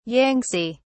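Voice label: "yangtze", British English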